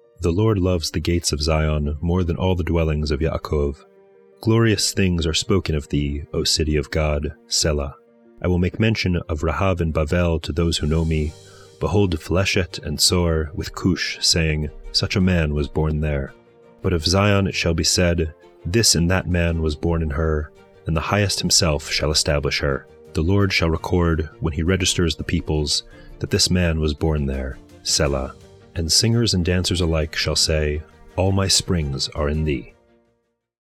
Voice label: poetry and prayer psalm english; Meir Soloveichik